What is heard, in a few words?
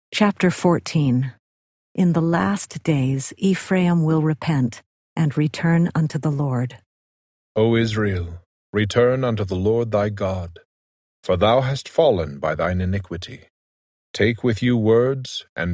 hosea male voice; the old testament